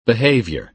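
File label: "behaviour", American English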